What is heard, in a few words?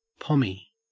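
"pommie", Australian English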